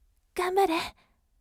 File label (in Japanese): voice ganbare yasashime